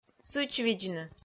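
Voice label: "сычвидзны", Komi, female; audio dict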